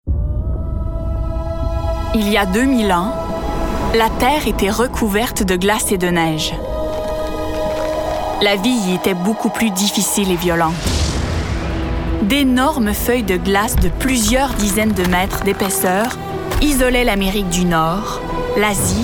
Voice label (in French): narration